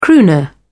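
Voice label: "crooner", British English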